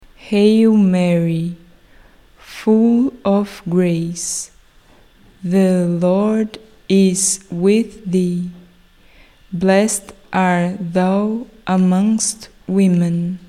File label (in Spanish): ave maria ingles lento